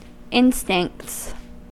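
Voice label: "instincts", US English; pronunciations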